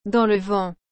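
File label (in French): "dans le vent", French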